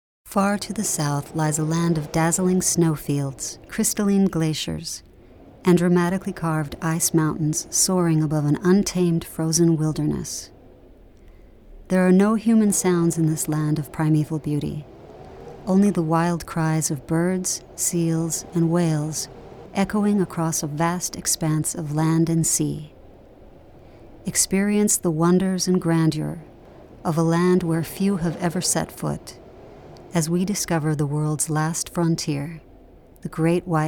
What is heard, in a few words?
Narration